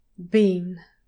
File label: "bean", english pronunciation